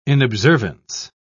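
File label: "inobservance", British English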